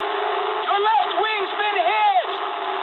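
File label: English left wing mood low; War Thunder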